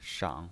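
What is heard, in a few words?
"shang", Mandarin Chinese pinyin, third tone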